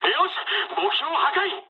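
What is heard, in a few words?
Japanese bomb success mood high; War Thunder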